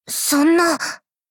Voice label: shock